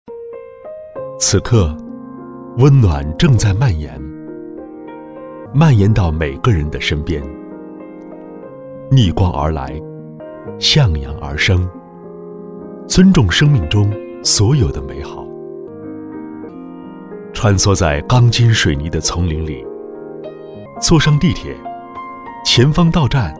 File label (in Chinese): 男,温暖